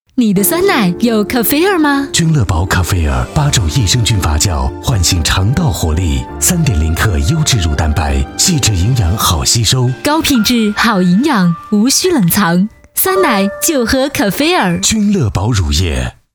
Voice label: 男国 磁性 品质 大气 成熟; 广告; 君乐宝开啡尔

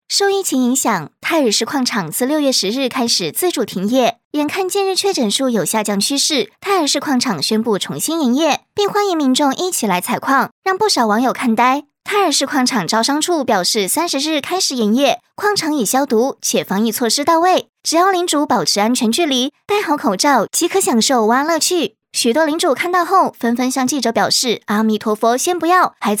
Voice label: 女 新闻; 新闻风格样音; 《無盡城戰》城战快报 矿场篇

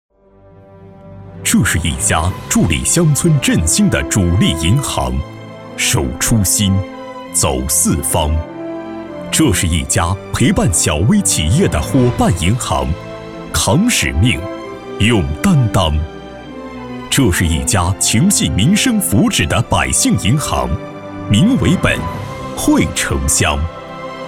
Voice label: 男 宣传片; 银行宣传样音; 射阳农商银行宣传片

